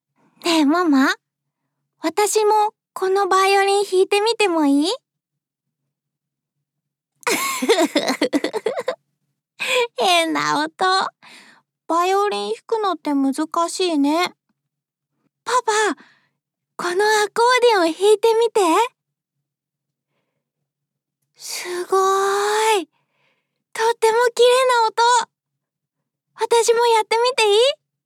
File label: japanese